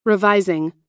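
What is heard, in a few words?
"revising", American English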